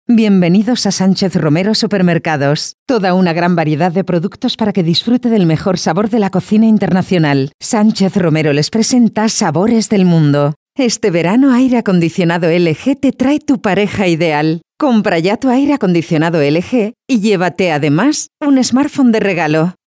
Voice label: spanish commercial

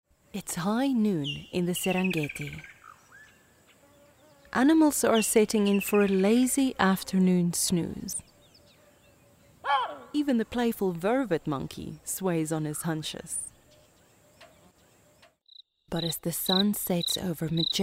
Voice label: english south african documentaries

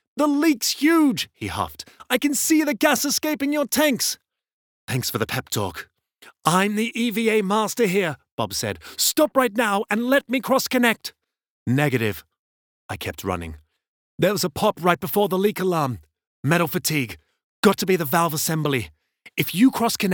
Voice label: english british audiobooks, british voice actors

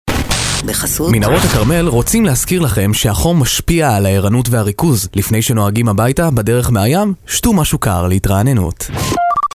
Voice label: hebrew commercial; Israel; Voice-over actor and Hebrew narrator

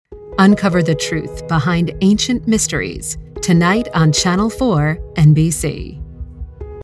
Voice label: english american tv shows

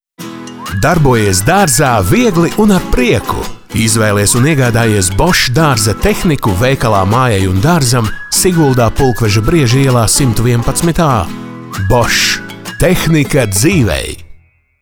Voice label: latvian commercial

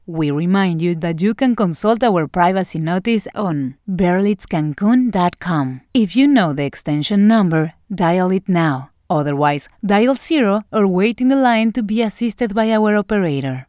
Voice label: spanish latin american ivr

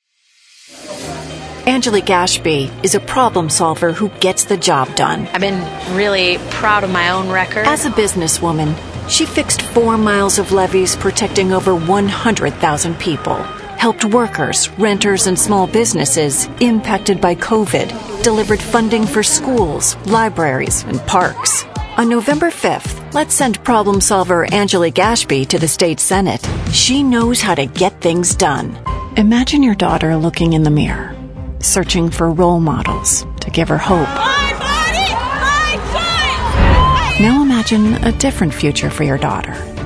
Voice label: english american political ads; Estados Unidos

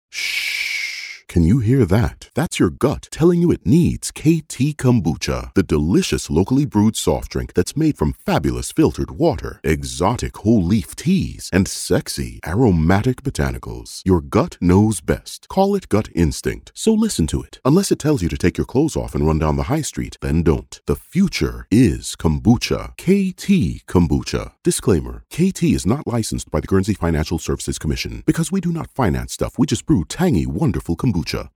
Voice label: english canadian

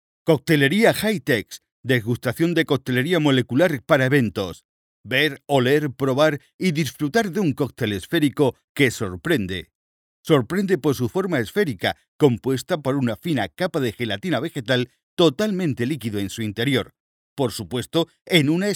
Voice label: spanish promos

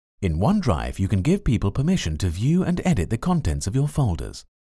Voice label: english british e-learning

